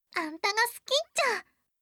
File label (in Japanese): 好きです 大分; imas; 雪歩